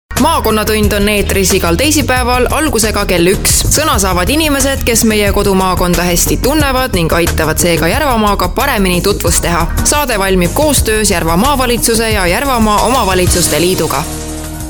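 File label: Estonian, female, elearning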